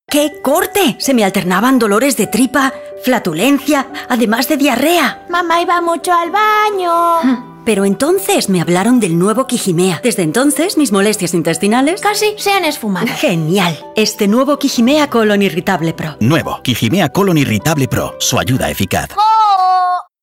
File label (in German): Werbung Spanisch